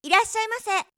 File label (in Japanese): いらっしゃいませ！, 働く女の子